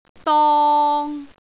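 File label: "dong3", Cantonese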